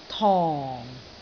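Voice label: "tong4", Cantonese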